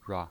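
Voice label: "rua", Mandarin Chinese pinyin voice, neutral tone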